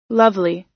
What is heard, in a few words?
"lovely", us